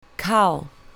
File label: "cow", English; farm animals; colour your english